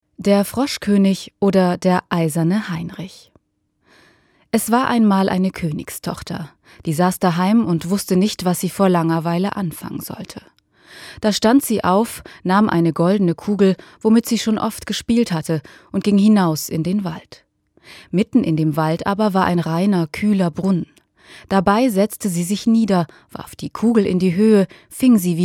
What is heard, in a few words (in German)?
Sprecheraufnahme Professionell normalisiert